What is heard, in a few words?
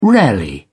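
"rarely", British English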